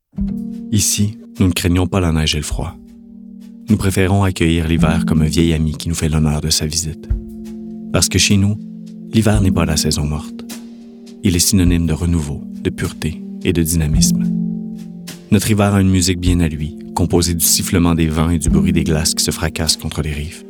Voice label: french commercial; French (Canadian); Canada